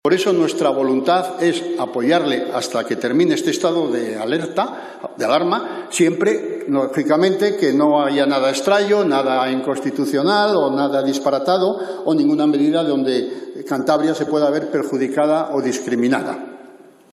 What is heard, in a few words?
advert; Mazon